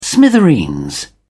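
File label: "smithereens", British English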